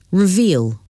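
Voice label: "reveal", English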